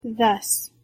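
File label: "thus", English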